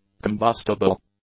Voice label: "combustible", English; adjectives